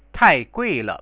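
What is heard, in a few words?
"taiguile", Chinese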